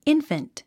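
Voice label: "infant", English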